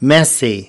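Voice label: "messy", English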